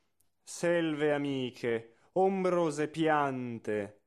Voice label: espressivo; Caldara

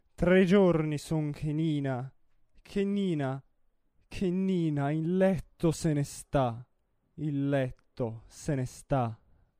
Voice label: espressivo

